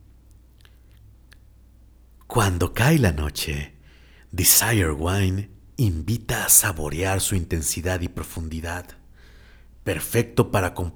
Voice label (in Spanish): Seductora